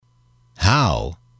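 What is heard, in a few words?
"how", English, male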